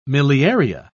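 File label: "miliaria", British English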